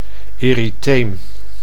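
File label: "erytheem", Dutch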